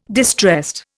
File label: "distressed", Indian